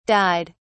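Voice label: "died", British English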